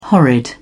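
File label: "horrid", British English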